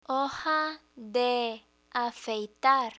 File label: "hoja de afeitar", Spanish